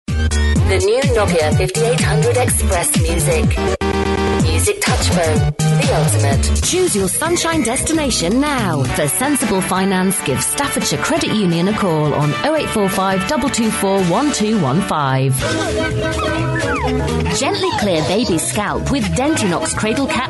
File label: some brands, voiceover